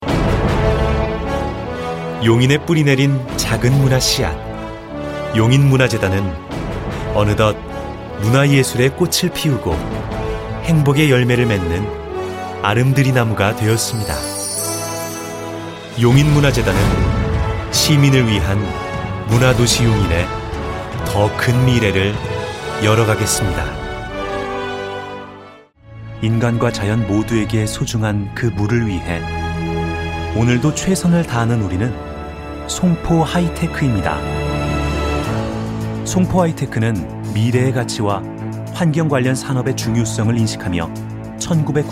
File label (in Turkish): Korece Erkek